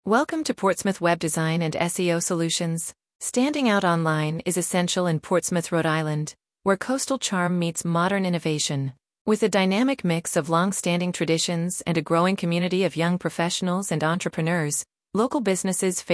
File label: Voice Over speech; Portsmouth; Slocum Design Studio